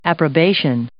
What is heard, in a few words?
"approbation", English